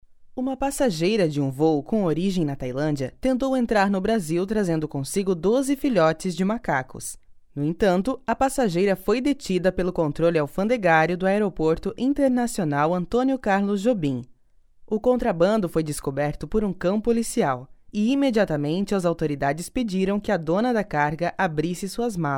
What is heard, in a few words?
PORTUGUESE